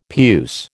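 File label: "puce", American English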